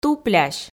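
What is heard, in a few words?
"туплясь", Komi, female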